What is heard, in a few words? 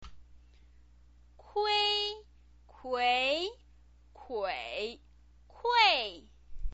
"kui", Chinese pinyin voice